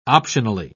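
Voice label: "optionally", British English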